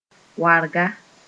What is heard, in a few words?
"warga", Mooré